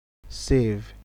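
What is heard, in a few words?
"save", Nigerian Pidgin, male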